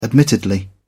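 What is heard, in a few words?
"admittedly", British English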